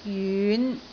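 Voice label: "jyun5", Cantonese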